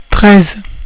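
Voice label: "treize", French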